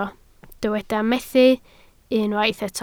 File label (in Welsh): "methu" Welsh